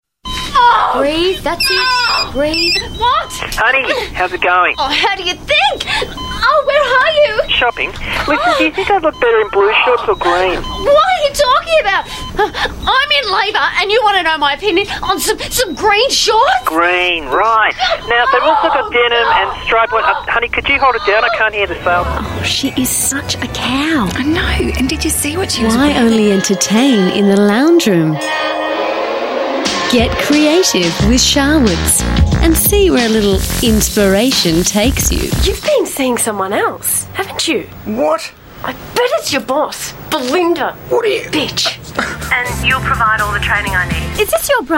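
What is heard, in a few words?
AU English Commercial Reads, Australian English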